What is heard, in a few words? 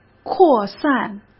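"扩散", Mandarin Chinese; hsk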